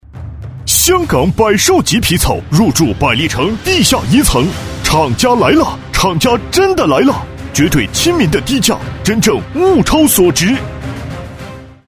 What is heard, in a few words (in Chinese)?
男, 广告, 皮草电购; 佰兽集皮草电购